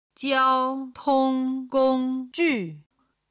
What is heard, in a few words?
"jiaotong gongju", Chinese